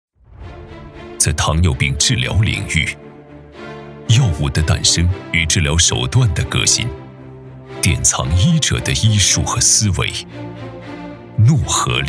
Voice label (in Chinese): 男 广告类; 糖尿病畅销单品药; 诺和力推介片